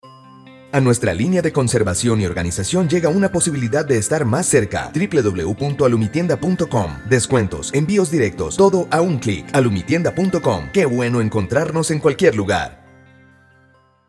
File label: SPOT; ALUMITIENDA